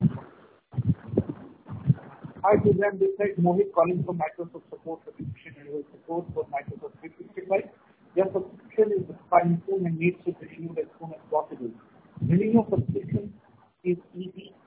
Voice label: voicemail